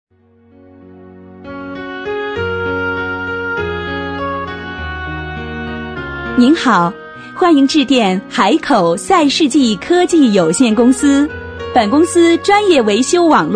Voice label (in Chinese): 彩铃; 海口赛世纪